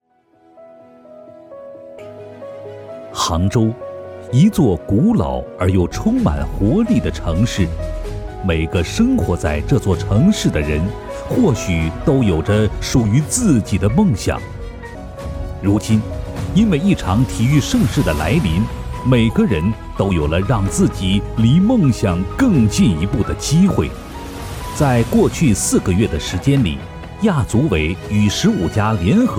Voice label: 男 宣传片 体育; 敢梦·敢想

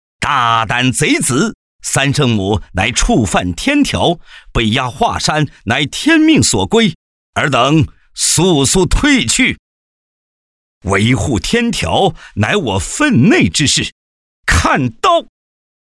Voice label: 男 角色; 二郎神

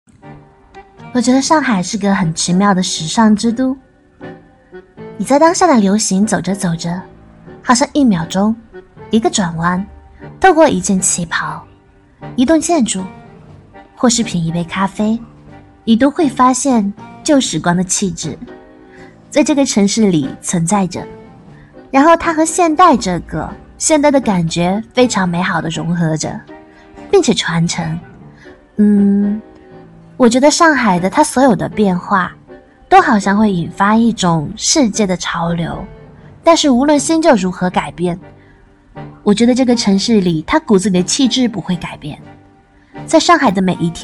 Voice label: 女, 台湾腔广告

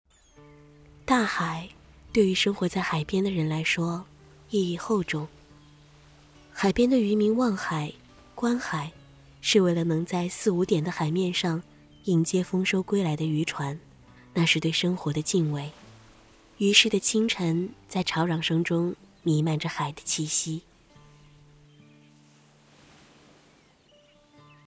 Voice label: 女 中文 旁白; 望海

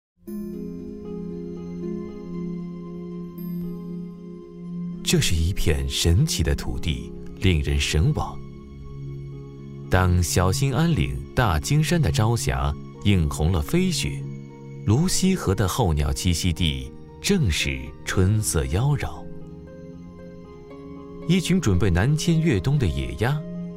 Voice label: 男 电视纪录片; 鸟中大熊猫

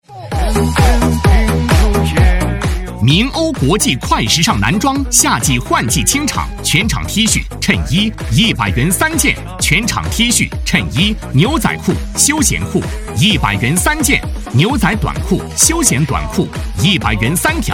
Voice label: 男 激情促销 男装; 铭欧国际男装

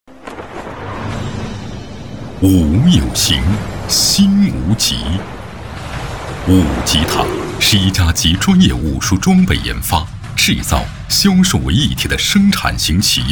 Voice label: 男 专题 形象 片 大气浑厚; 武极堂武有形

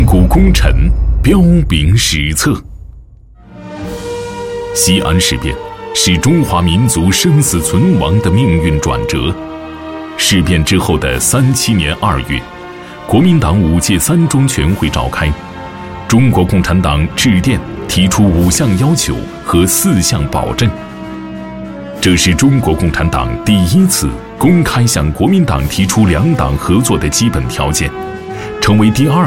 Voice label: 男 片头片花 介绍历史大气; 西安事变

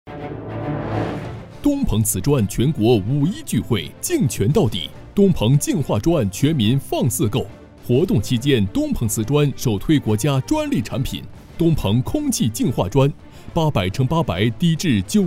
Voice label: 男 广告促销 瓷砖; 东鹏瓷砖

